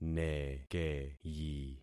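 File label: "nekeyi", concatenated syllables; Affixing experiment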